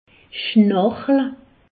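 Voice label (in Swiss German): "schnarichle", Alsatian; medecine; ronfler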